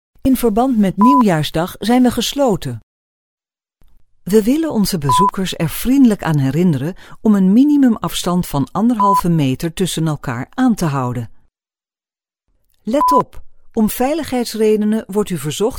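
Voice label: Dutch, Messaging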